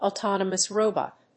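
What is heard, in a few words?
"autonomous robot", English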